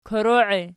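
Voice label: "koroii" nominative, Archi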